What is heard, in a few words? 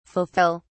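"fulfill", British English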